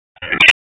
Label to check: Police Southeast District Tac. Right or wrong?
Right